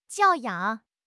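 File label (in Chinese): "教养", Chinese; HSK6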